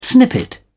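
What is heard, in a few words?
"snippet", British English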